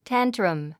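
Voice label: "tantrum", English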